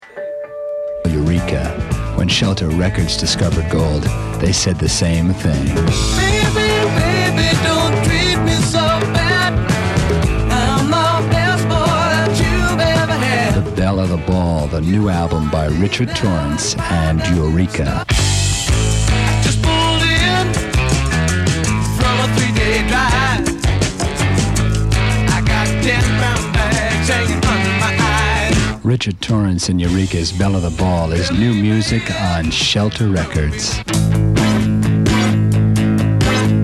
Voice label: commercial break